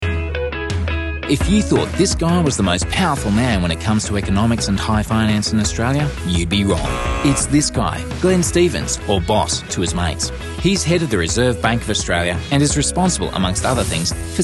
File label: Narration